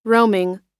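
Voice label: "roaming", US English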